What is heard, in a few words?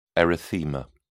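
"erythema", British English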